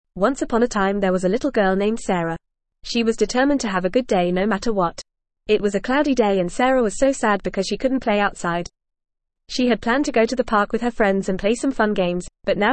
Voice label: ESL Short Stories for Kids FAST reading; The Cloudy Day

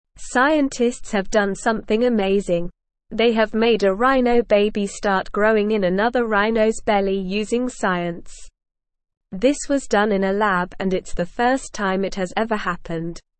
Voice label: English Lower Intermediate SLOW Reading; Scientists Make Baby Rhino in Lab to Save Endangere; English Newsroom

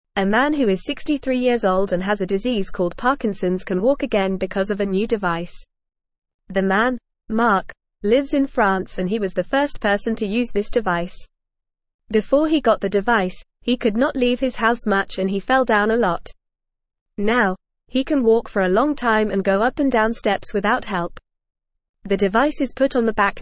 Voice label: English Lower Intermediate FAST Reading; Special Thing in Back Helps Man Walk Again

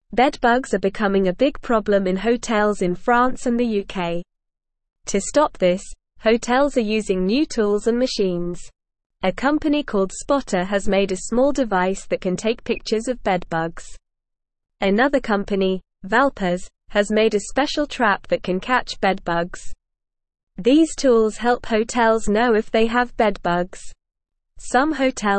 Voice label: English Beginner NORMAL Reading; English Newsroom; Hotels use new tools to stop bedbugs

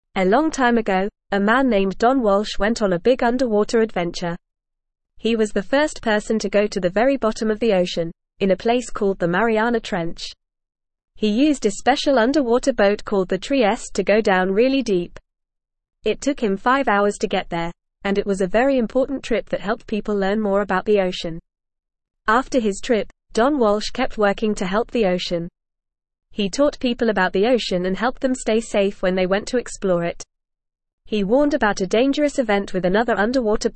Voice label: English Lower Intermediate FAST Reading; Deep Dive Don Walsh Explores the Oceans Depths

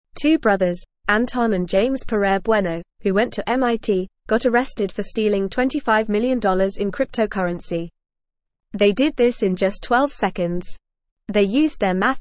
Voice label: English FAST Reading; Brothers steal lots of money from computer

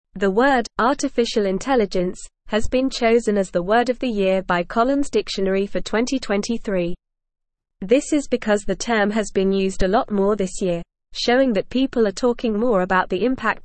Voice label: Upper Intermediate NORMAL Reading, English; AI Named Collins Dictionary Word of the Year 2023; English Newsroom

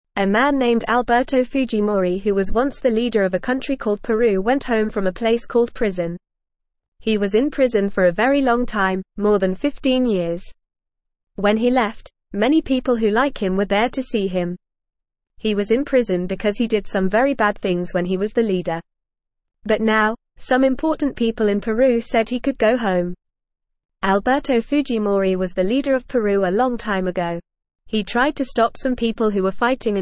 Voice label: English Newsroom Beginner FAST Reading; Alberto Fujimori the leader of Peru is free

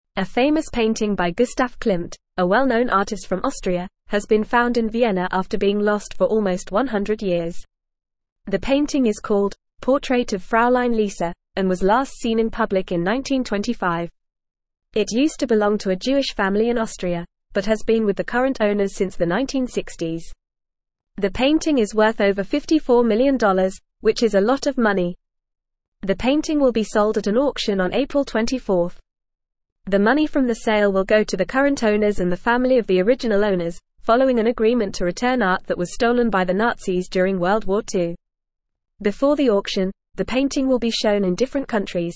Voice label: English Newsroom Upper Intermediate FAST Reading; Long Lost Klimt Portrait Found Worth 54 Million

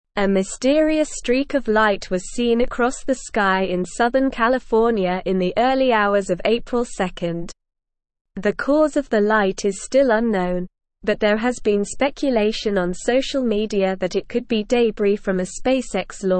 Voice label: English Newsroom Advanced SLOW Reading; Mysterious streaks of light in southern California sky

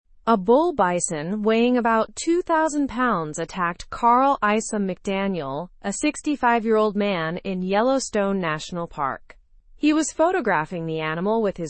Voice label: learn english through news upper intermediate; bison attack on elderly man at yellowstone

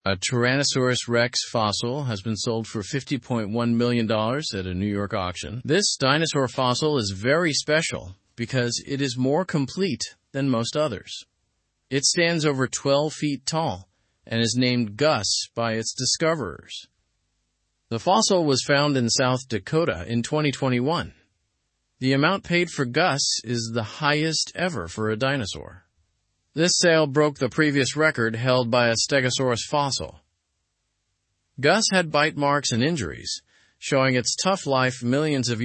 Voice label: english news lower intermediate; record breaking t rex fossil sale in new york

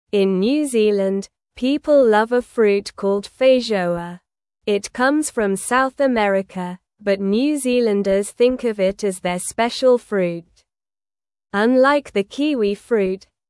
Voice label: English Newsroom Beginner SLOW Reading; New Zealands Special Fruit The Feijoa Story

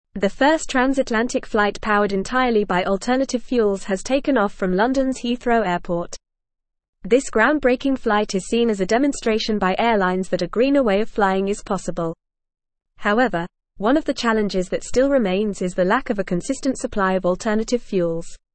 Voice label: English Advanced FAST Reading; English Newsroom; First Transatlantic Flight Powered by Alternative Fuels Takes

